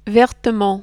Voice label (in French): "vertement", French